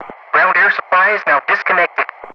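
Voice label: "ground air supply is now disconnected", English, Ground Crew; DCS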